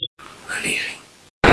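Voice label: Voice Acting Spanish Female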